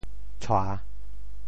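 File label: "tshua3", Teochew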